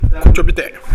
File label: "kochobitae", minamiyamagata